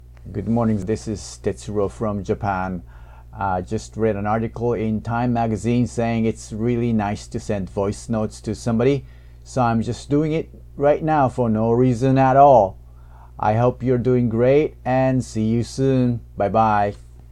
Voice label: Voice Note